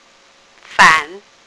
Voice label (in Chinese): "fan3", phonics